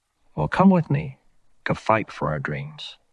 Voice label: Voice Acting, es